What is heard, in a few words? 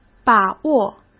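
"把握", Mandarin Chinese; hsk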